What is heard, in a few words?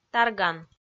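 "тарган", Komi, female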